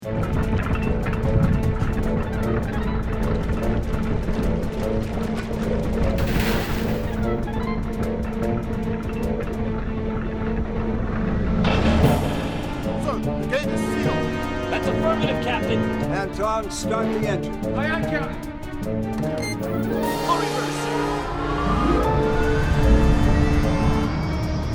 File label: Action Scene w Dialogue